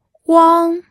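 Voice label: "wang1", Mandarin Chinese, pinyin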